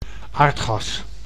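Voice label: "aardgas", Dutch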